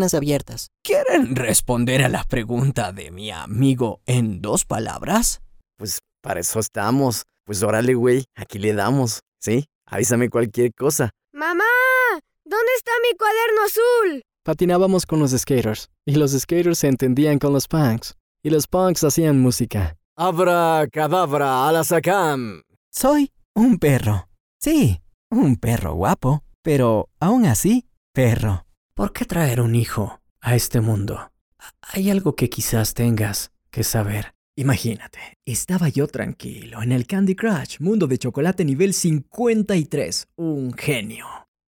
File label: spanish latin american neutral video games